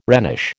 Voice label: "Rhenish", American

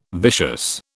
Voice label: "vicious", British

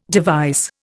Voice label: "device", Indian

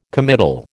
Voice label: "committal", American

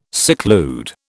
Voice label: "seclude", British

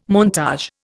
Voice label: "montage", Indian

